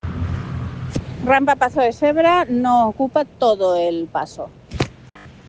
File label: Voice message